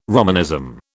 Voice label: "Romanism", British

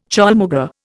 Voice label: "chaulmoogra", Indian

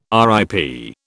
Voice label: "RIP", British

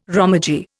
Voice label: "romaji", Indian

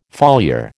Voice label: "foliar", American

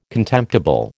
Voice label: "contemptible", American

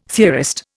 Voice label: "theorist", Indian

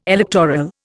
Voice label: "electoral", Indian

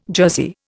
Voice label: "jersey", Indian

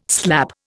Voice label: "slap", Indian

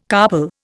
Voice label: "carpal", Indian

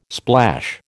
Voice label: "splash", American

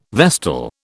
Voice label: "vestal", British

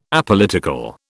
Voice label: "Apolitical", British

